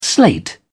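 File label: "slate", British English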